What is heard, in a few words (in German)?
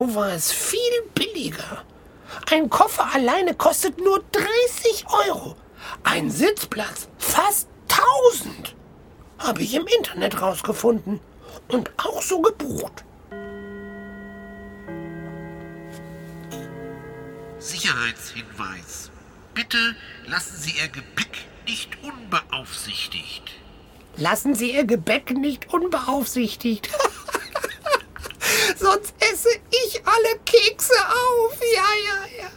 hoerspiel